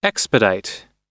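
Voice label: "expedite", US English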